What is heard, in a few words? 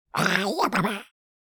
Alien creature command or warning